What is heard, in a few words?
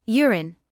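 "urine", British English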